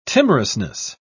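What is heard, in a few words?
"timorousness", British English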